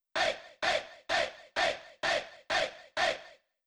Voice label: Drum Loop 128BPM Vox "Hey"; VEC5 Drumloops; VEC5 Multi Loops; Loop Elements